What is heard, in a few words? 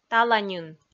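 "таланьын", Komi, female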